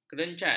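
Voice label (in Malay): "kerencat", Malay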